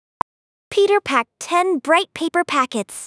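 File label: speech female fast high, paper packets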